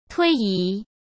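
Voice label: "推移", Mandarin Chinese; hsk; chinese-flashcards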